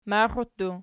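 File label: "maxiu" plural, Archi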